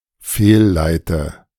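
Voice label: "fehlleite", German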